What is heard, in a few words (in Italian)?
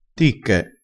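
"ticche", dialetto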